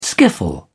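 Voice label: "skiffle", British English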